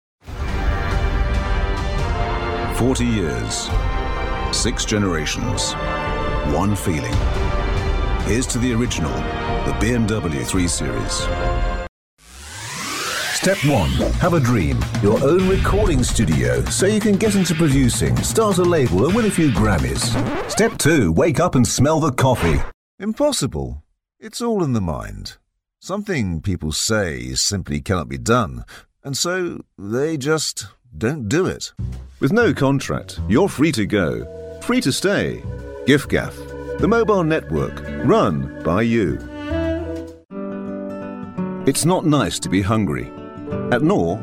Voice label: Commercial Showreel